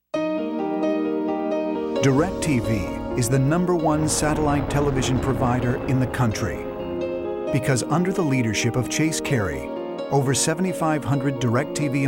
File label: narration